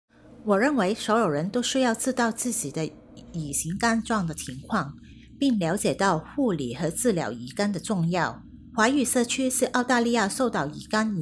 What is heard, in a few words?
Chinese; hepatitis